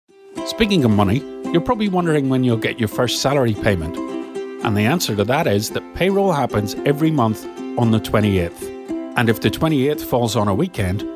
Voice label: corporate, Northern Irish Belfast Accent

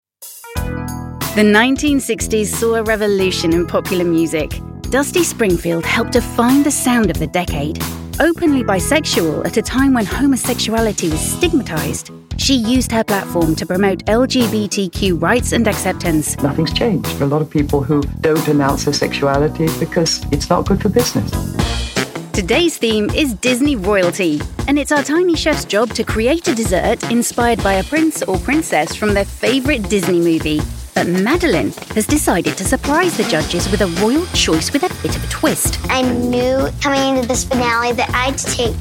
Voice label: documentary

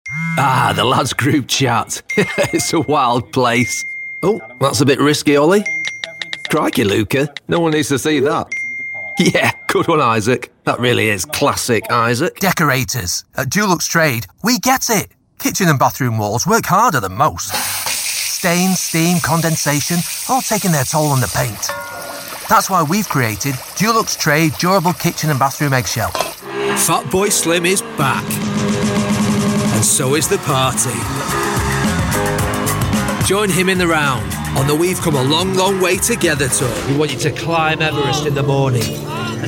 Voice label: commercial, voiceover

